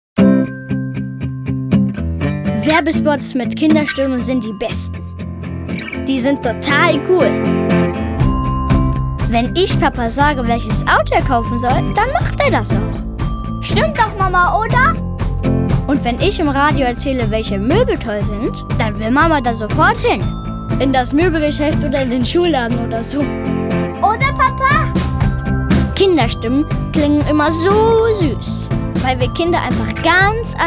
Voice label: Werbung

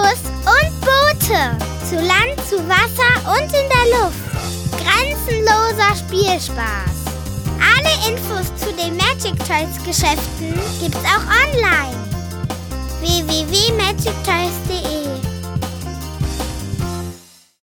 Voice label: Werbung Spielzeug

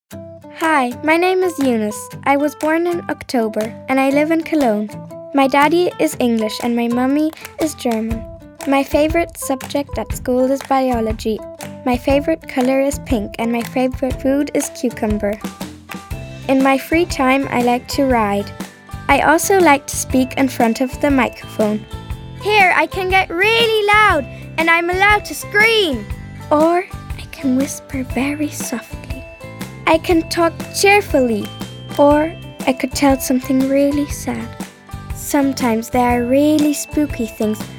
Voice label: Selbstvorstellung EN

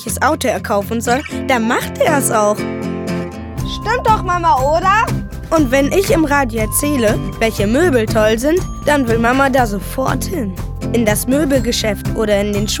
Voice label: Werbung Kinderstimmen

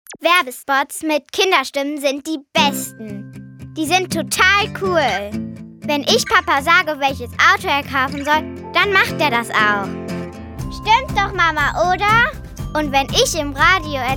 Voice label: Werbung

